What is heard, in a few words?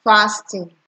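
"fasting", Nigerian Pidgin, female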